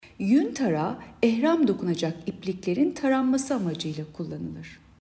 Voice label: turkce